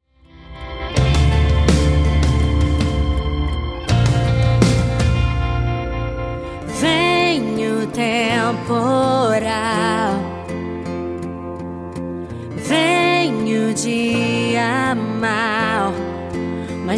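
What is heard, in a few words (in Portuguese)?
aniversario evangelico filha feminino, telemensagem; telemensagem evangelica aniversario filha filho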